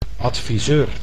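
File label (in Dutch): "adviseur", Dutch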